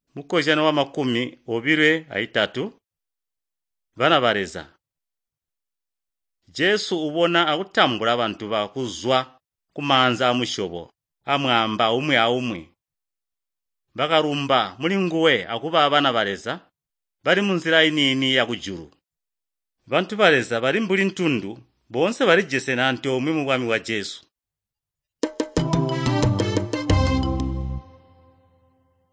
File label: nt drama, tonga valley; chitonga; good news